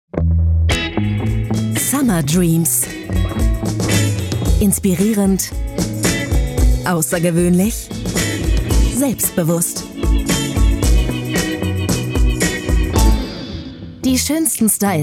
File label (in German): TV Werbung Mode; Peter Hahn